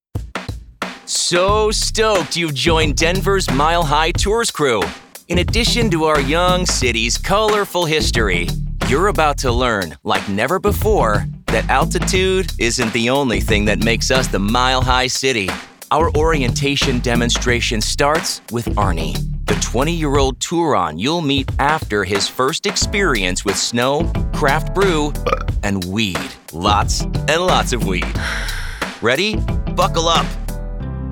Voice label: e learning

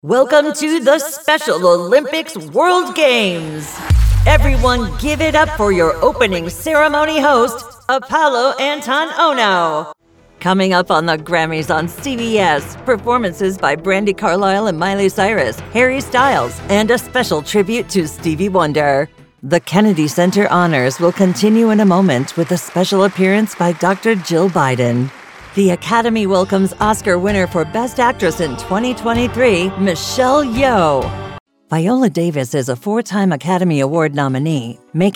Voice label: Live Event Announcer

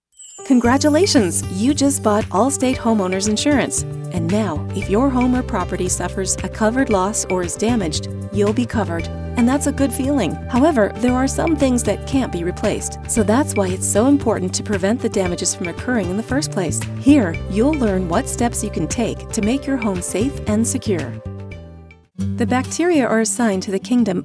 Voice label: Explainer Elearning Narration

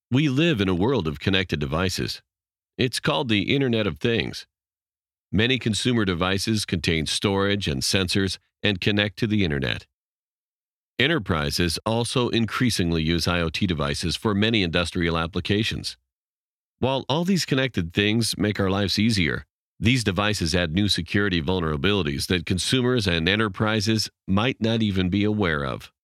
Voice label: E-Learning Explainer

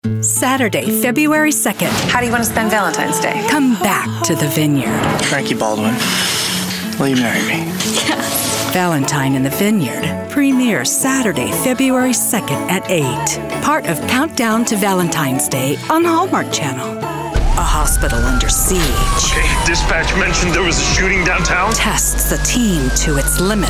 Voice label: Promo

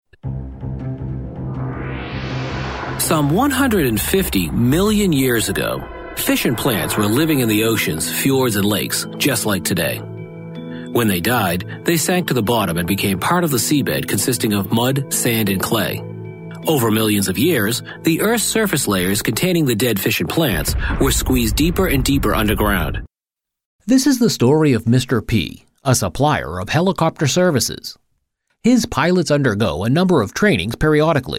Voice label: Narrations

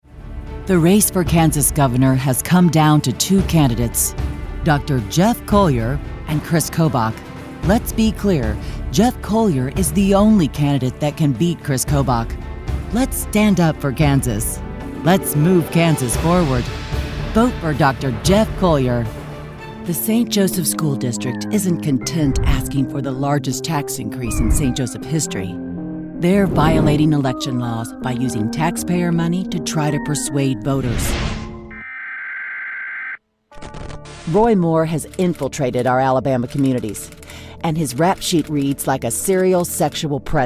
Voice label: political ads

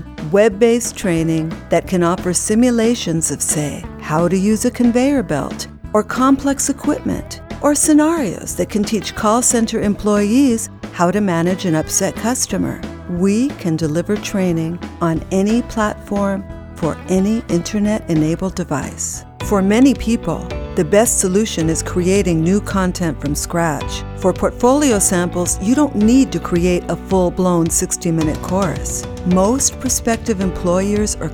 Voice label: E learning English

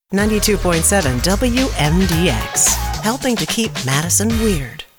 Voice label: Imaging

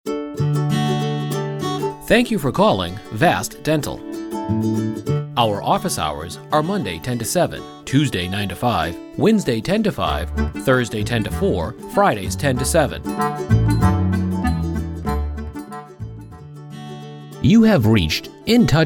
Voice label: IVR

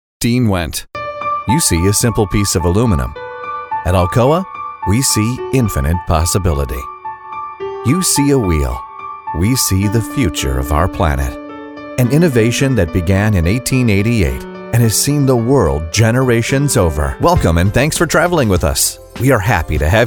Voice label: Narration

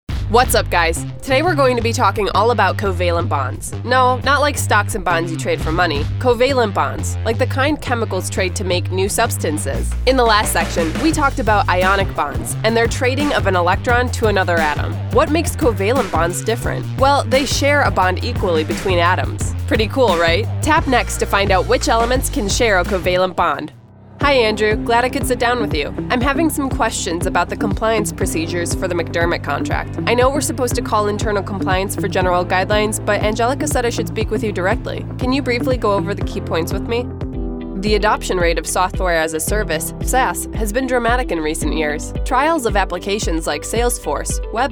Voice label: eLearning

